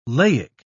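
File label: "laic", British English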